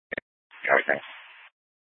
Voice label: Valley Metro Public Transit System Light Rail Security, scanner